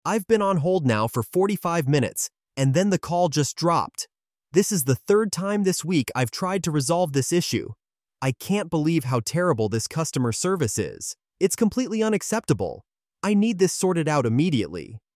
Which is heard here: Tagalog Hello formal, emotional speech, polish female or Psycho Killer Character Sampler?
emotional speech